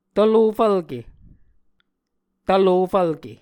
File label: "tvlofvlke", Muscogee